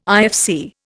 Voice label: "IFC", Indian

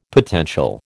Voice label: "potential", American

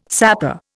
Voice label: "sapor", Indian

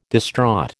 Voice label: "distraught", American

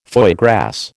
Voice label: "foie gras", American

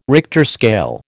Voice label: "Richter scale", American

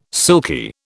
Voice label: "silky", British

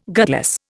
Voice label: "gutless", Indian

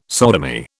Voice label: "sodomy", British

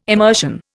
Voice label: "immersion", Indian

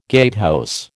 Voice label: "gatehouse", American

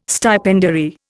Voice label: "stipendiary", Indian

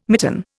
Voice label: "mitten", Indian

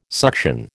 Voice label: "suction", American

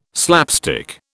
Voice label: "slapstick", British

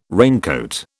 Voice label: "raincoat", British

